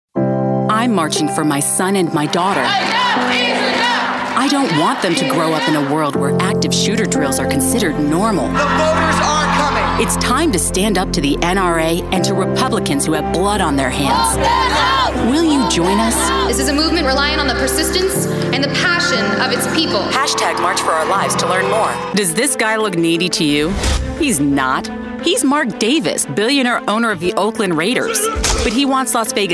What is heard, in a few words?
Political, Professional vo